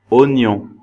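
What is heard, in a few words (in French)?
"oignon", French; Mots francais phonemes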